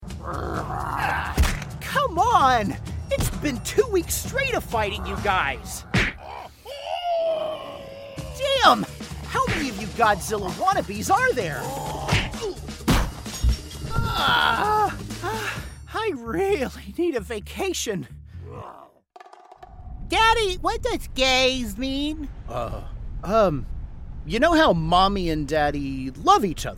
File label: Animation